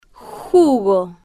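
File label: "jugo", slow, Spanish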